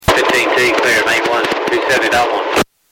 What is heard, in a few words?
Porterfield clear, NS 15T calls a Clear signal at Porterfield; Norfolk Southern Corporation; Location Porterfield - N270.3